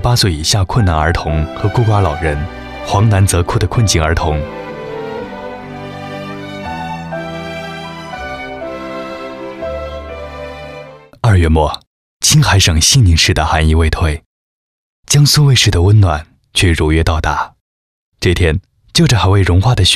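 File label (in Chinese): 男【温暖专题】公益（温情）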